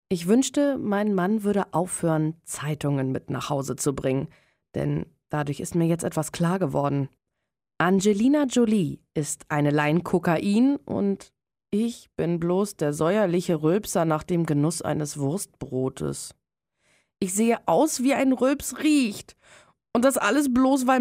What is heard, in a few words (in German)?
hoerbuch